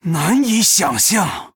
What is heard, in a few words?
Male Troll WOW, zh